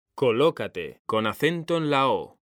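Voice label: "COLÓCATE", Spanish; mekanta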